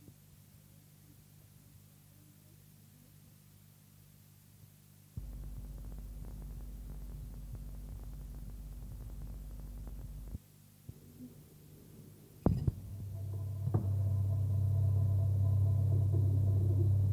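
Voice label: dialect collections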